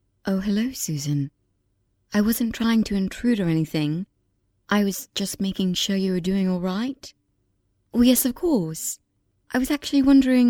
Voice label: Amerikan Ingilizcesi Kadin, Kadın Ses

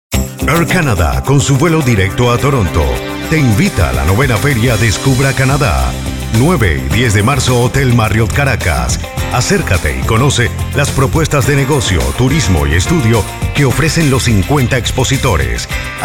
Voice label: Ispanyolca Erkek Ses; İspanyolca Seslendirme

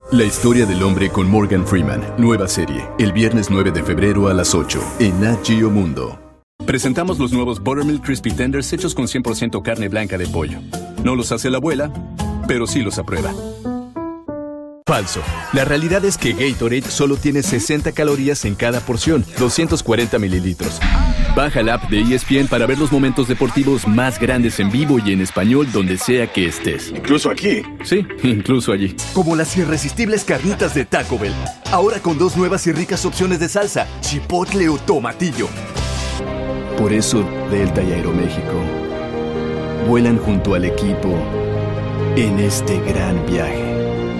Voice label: Ispanyolca Erkek Ses; seslendirme

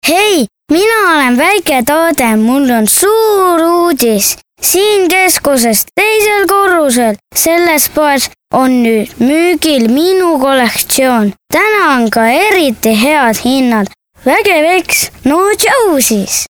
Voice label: Estonca Erkek Cocuk; seslendirme

